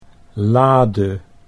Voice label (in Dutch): "lade", Dutch